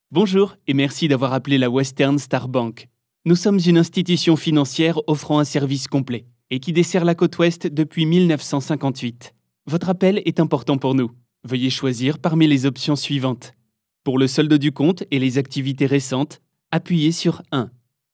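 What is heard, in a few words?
French, male, ivr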